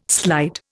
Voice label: "slide", Indian